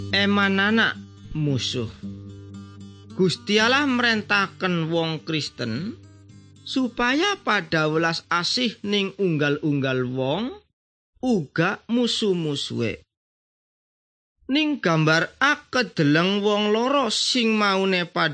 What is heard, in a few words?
good news jawa indramayu, javanese bible